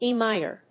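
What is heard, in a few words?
"E minor", English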